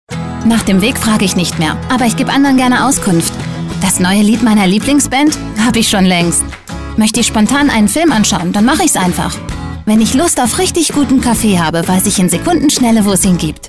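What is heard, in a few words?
German, female, commercial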